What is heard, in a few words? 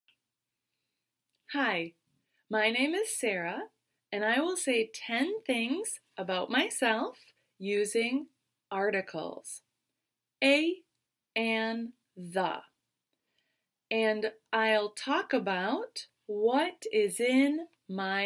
conversation with accent from the USA; “What is in your house?”; Appliances, I have, I want; Elllo